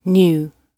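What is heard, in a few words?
"knew", British English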